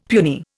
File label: "puny", Indian; Dictionary